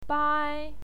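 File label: "bai", Mandarin Chinese, first tone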